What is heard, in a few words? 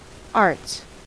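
"arts" English, US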